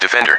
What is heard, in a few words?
"defender", EN